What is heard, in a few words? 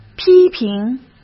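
"批评", Mandarin Chinese; chinese flashcards; hsk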